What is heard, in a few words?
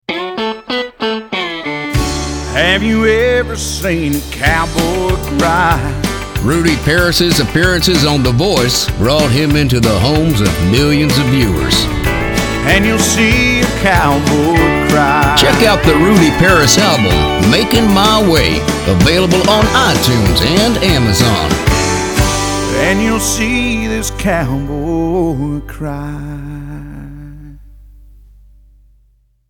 Commercial